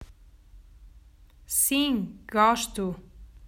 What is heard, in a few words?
"Sim gosto", Portuguese